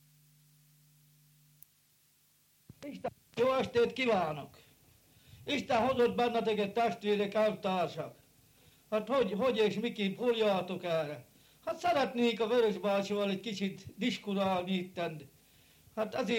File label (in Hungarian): nyelvjaras gyujtesek